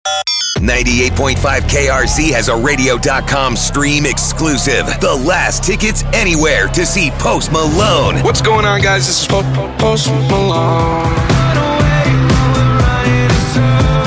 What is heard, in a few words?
PROMO COMMERCIAL